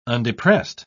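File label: "undepressed", British English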